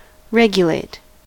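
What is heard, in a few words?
"regulate", US English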